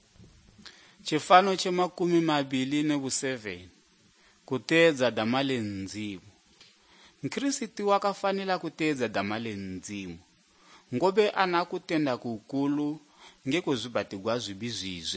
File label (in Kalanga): good news kalanga lilima, drama